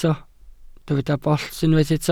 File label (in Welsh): "bollt", Welsh